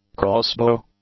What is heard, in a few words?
"crossbow", English; nouns